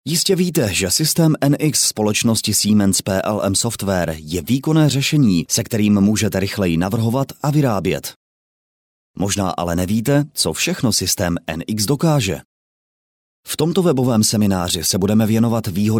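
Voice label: male Czech documentary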